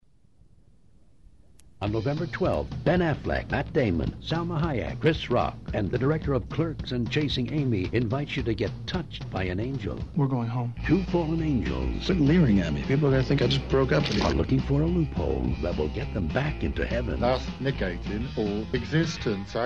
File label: radio spot; dogma